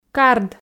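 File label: "кард", Komi, female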